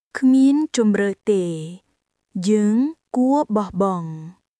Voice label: Khmer, cambodian; クメール語（カンボジア語）の「悪口」フレーズ; insults complaints